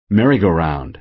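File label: "merry-go-round", American English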